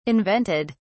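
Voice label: "invented", British English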